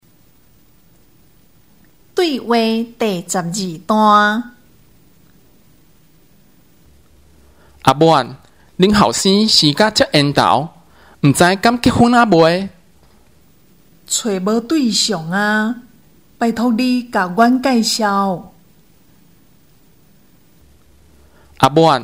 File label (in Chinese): 對話, 閩南語; 閩南語語言能力認證; 閩南語能力測驗題本